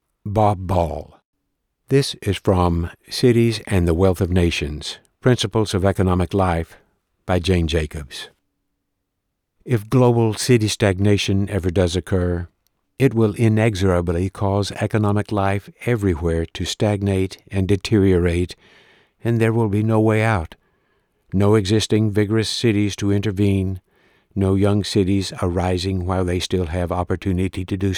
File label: Special Skills Microeconomics, audiobook narration